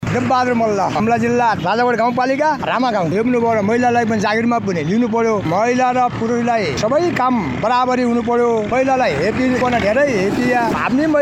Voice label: voxpop